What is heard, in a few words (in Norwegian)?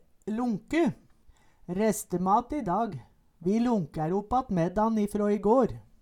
lonke, Numedalsmål